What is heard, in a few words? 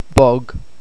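"bog", Irish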